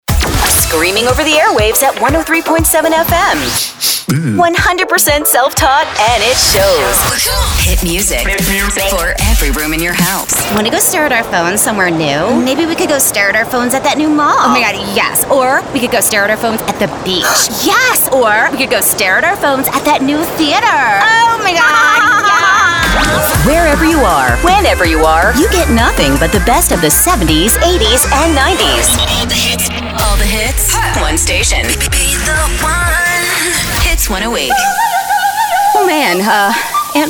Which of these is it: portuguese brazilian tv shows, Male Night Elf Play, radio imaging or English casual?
radio imaging